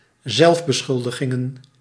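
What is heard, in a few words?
"zelfbeschuldigingen", Dutch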